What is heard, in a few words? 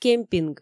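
"кемпинг", Komi, female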